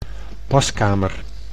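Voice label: "paskamer", Dutch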